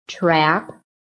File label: "trap", phonetic